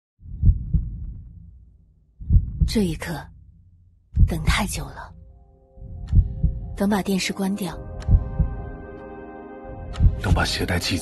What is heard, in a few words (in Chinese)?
女; 阿迪达斯